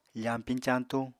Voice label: "fañpiñ-cañtuñ", Apatani

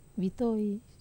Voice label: "vitoi", Wamesa

